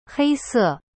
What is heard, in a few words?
"黑色" hei se, chinese; cyberchinese